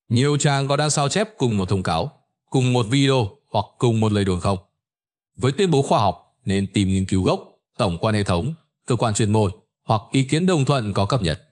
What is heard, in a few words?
narration